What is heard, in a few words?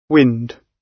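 "wind", British English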